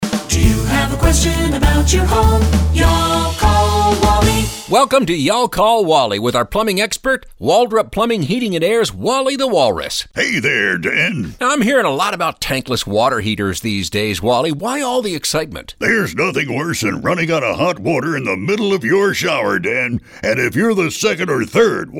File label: radio ads; Lots of Tankless Water